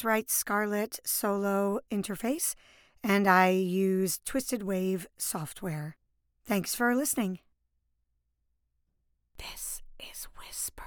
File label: Raw Studio Audio